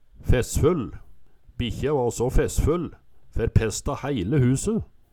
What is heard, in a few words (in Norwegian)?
"fessfull", Numedalsmål